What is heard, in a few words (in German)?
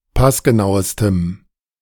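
"passgenauestem", German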